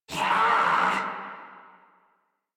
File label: Threatened Crewmen; Warframe; Corpus Crewmen; Crewman D